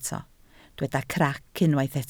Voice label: "crac", Welsh

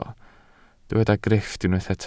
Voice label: "grifft", Welsh

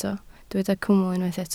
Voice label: "cwmwl", Welsh

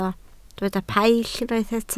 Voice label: "paill" Welsh

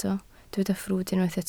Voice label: "ffrwd", Welsh

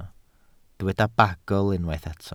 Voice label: "bagl", Welsh

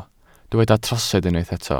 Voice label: "trosedd", Welsh

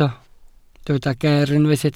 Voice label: "geer", Welsh

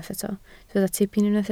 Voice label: "tipyn", Welsh

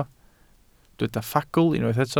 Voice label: "ffagl" Welsh

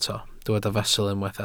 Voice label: "fesul", Welsh

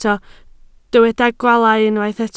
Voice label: "gwaalau", Welsh

